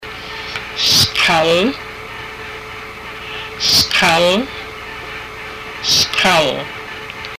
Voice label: shoulder, haida; Skál

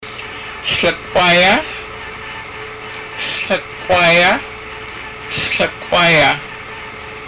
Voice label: thumb, haida, body parts; Stlak'wáay

